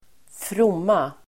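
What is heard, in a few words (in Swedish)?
"fromma", Swedish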